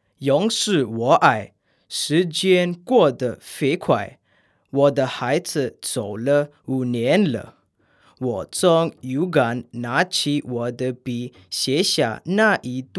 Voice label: Chinese Male